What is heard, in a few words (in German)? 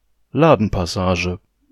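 "Ladenpassage", German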